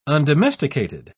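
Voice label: "undomesticated", British English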